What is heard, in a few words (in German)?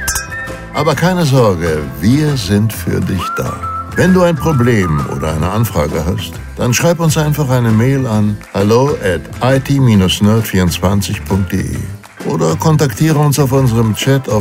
Ansage Feiertag; IT Nerd